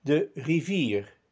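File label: "de rivier", Dutch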